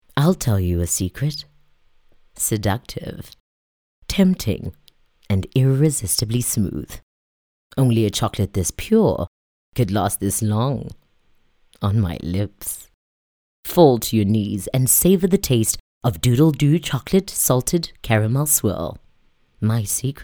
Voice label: English intimate; OSM Talent